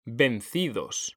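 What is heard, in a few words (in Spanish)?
"vencidos", Spanish; mekanta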